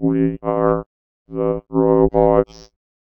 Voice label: VOICEFX ELCTR 140; Techno2